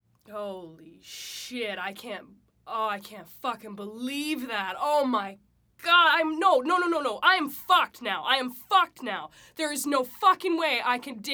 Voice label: Late 20s Woman Says Swear In Trouble; Tamers Sound Effects